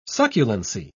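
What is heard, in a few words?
"succulency", British English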